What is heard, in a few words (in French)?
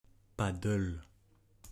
Padel anglophone; prononciation